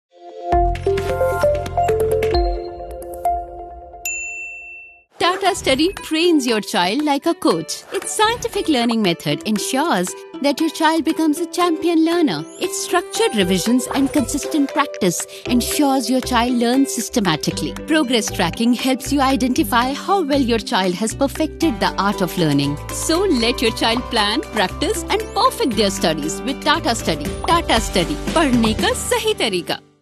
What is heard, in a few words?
english indian commercial, Weiblich; English (Indian) Voice Actor